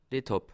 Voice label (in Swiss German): "etapp", alsace